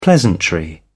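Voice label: "pleasantry", British English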